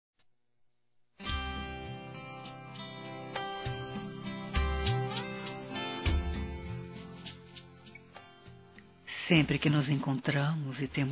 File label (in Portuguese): ROMANTICA PICANTE, fem; Telemensagens; Sensuais